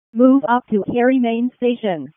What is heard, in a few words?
EMS ALERT; wake